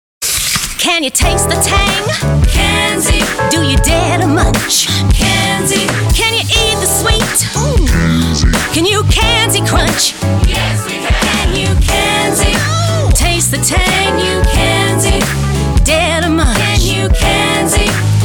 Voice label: Radio Spot; KANZI® Apples